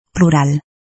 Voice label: "Plural", English